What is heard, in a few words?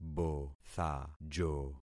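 "bothajo", concatenated syllables; Affixing experiment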